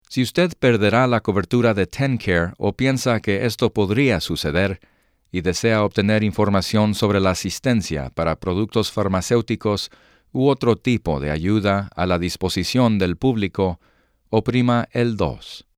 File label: Spanish; Commercial Talent Productions